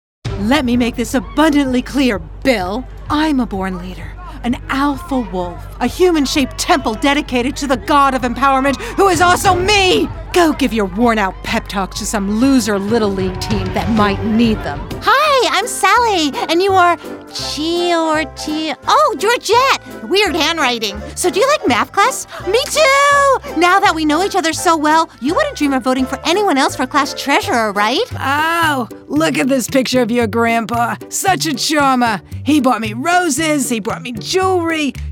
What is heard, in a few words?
ANIMATION